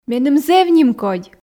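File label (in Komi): меным зэв нимкодь, Komi, female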